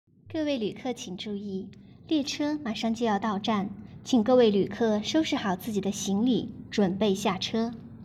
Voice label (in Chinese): 列车播音员